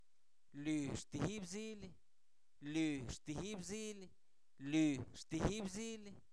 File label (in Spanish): tú solo, Zapoteco, santo domingo albarradas; INALI; pronombre libres